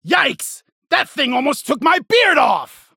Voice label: Killcry Cave Leech